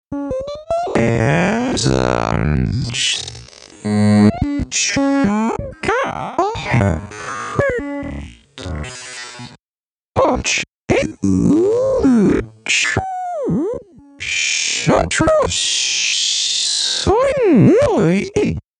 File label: macOS a capella